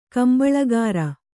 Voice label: "ಕಂಬಳಕೋರಿ", Kannada

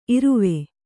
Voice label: "ಇರವೆ", Kannada

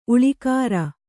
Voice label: "ಉಳಿಕಾರ", Kannada

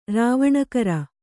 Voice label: "ರಾವಣಕರ", Kannada

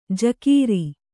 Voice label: "ಝಕಾರ", Kannada

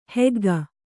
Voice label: "ಹಗ್ಗಿ", kannada

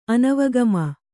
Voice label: "ಅನವಗಮ", Kannada

